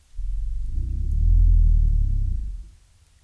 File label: male